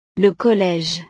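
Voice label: "le college", French; utiles escolares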